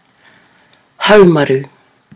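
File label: "haumaru", Māori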